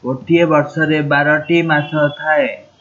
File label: a year has 12 months, odia